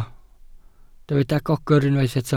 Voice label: "gogr", Welsh

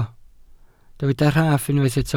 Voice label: "rhaff", Welsh

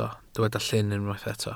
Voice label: "llyn", Welsh

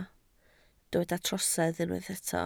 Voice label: "trosedd", Welsh

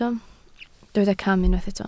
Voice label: "cam", Welsh

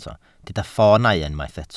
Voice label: "ffoonau", Welsh

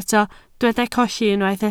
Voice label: "colli", Welsh

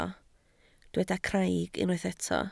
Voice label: "craig", Welsh

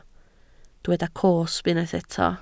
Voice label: "cosb", Welsh

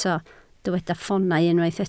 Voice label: "ffoonau", Welsh

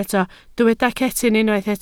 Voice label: "cetyn", Welsh

